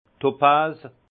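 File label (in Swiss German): "topaz", Alsace Haut Rhin; vin; topaze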